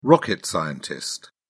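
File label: "rocket scientist", British English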